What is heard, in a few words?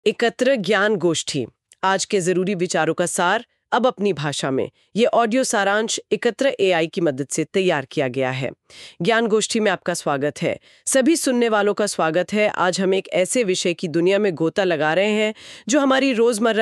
Audio Civil Engineering Hindi